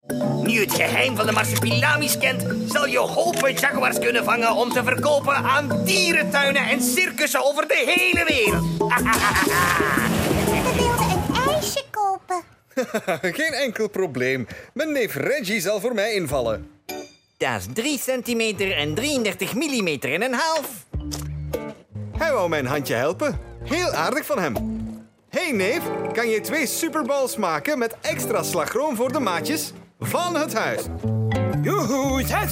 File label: dubbing